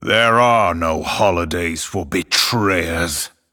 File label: Male Night Elf HAPPY HOLIDAYS